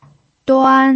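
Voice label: "duan", Mandarin Chinese, first tone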